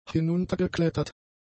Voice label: "hinuntergeklettert", German; adjectives